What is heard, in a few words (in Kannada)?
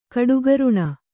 "ಕಡುಗರುಣ", Kannada